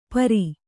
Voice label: "ಪೈರೆ", kannada

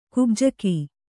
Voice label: "ಕುಬ್ಜಕ", Kannada

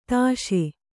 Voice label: "ತಾಶೆ", Kannada

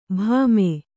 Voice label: "ಭಾಮೆ", kannada

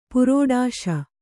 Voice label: "ಪುರೋಡಾಶ", Kannada

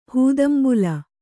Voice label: "ಹೂದಂಬುಲ", Kannada

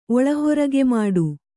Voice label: "ಒಳಹೊರಗೆಮಾಡು", Kannada

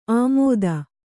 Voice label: "ಆಮೋದ", Kannada

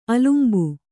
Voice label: "ಅಲಂಬು", Kannada

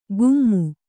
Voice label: "ಕುಮ್ಮು", Kannada

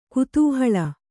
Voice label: "ಕುತೂಹಲಿ", Kannada

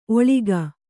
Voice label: "ಒಳ್ಗು", Kannada

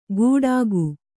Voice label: "ಗುಡಾಕು", Kannada

